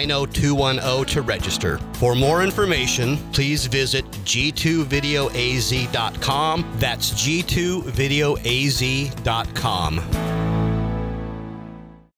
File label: 30 Second Radio Commercial; G2VideoAZ